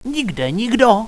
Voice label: clear, radio